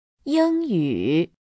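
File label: "yingyu", Chinese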